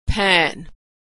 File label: "pan", pronunciation